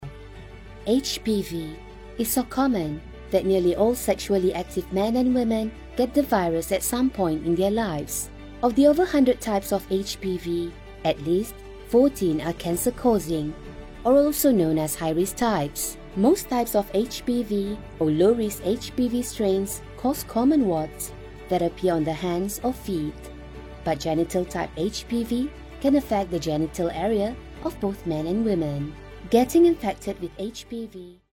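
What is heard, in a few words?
Malay, female; voice over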